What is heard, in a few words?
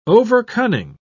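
"overcunning", British English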